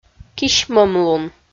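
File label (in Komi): "кисьмӧмлун", Komi, female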